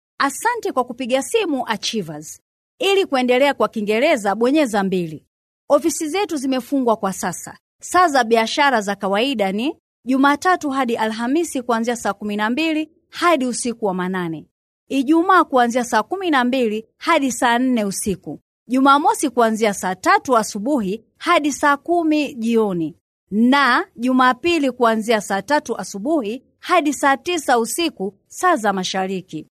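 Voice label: Swahili, female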